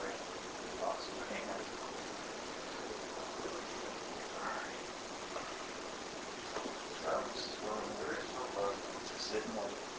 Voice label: Unexplained Whisper (Hurry) with inaudible whisper; Case 029 Christian Home